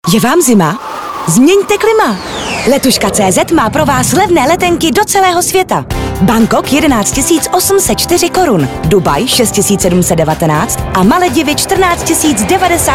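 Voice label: Czech, female; Professionelle Sprecher und Sprecherinnen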